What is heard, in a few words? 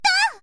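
"to", girl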